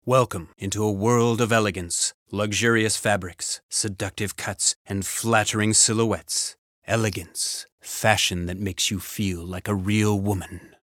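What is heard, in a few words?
us englisch, American English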